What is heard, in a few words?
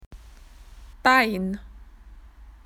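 "leg", Australian English; PictureDictionary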